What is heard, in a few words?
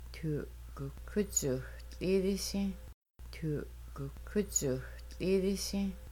We might pour water, Kaska; Making Bannock